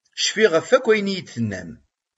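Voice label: "Cfiɣ ɣef akk ayen i d-tenniḍ.", Kabyle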